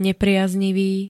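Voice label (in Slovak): "nepriaznivý", Slovak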